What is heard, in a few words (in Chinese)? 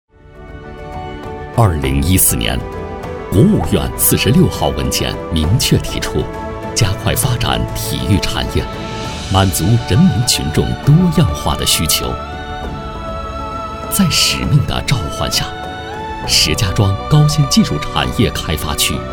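解说类体育公园; 想象国际体育公园样音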